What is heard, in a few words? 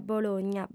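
"bologna", female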